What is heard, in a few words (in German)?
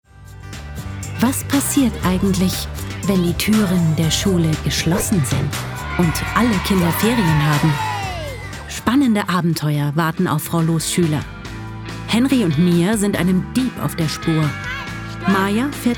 Hoerspiel Teaser Deutsch